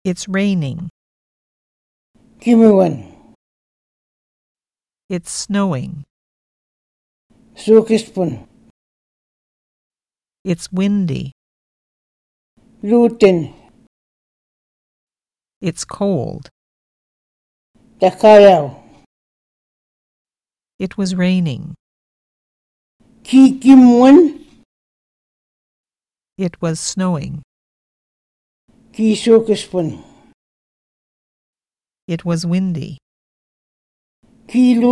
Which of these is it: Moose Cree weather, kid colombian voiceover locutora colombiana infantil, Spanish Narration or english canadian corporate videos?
Moose Cree weather